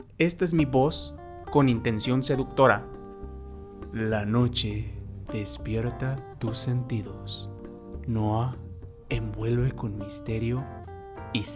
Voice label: seductora, locutor

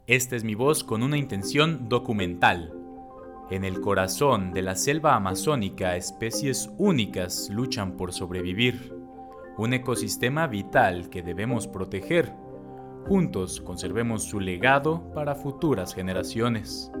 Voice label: documental, locutor

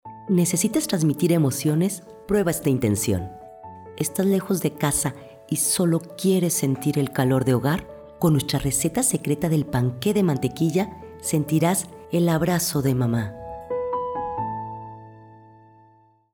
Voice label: Emotiva, locutora

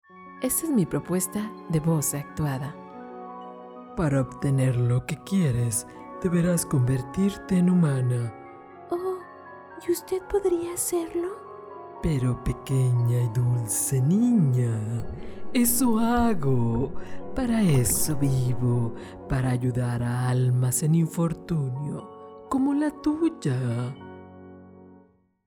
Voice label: Actuada, locutora

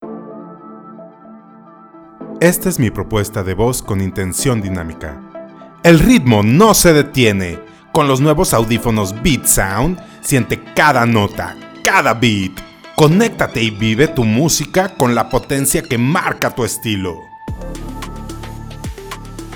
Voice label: Dinamica Musicalizado, locutor

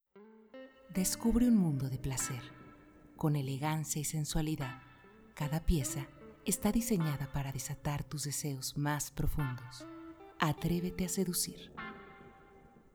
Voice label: Seductora musicalizado, locutora

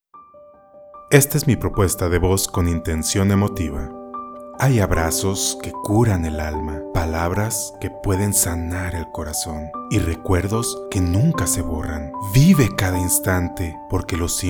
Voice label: Emotiva Musicalizado; locutor

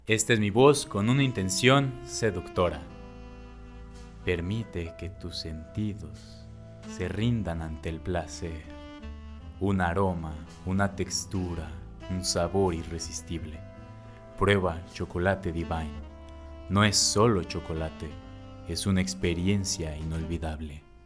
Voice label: seductora; locutor

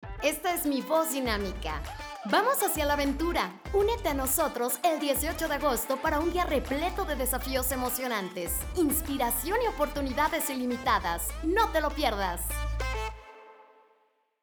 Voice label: Dinamica, locutora

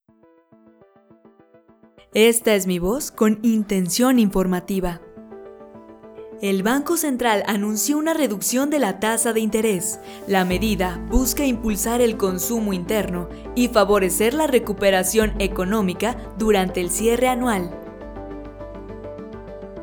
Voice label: Informativa Musicalizado, locutora

